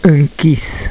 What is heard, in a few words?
"inchis", romanian